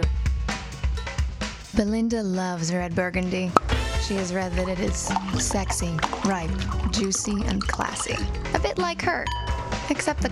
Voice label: audiobook; burgandy